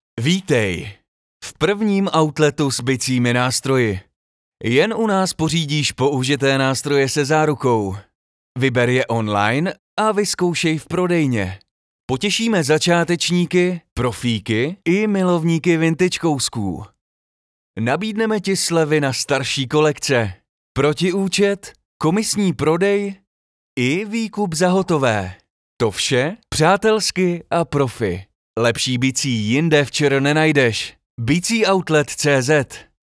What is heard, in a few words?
Commercial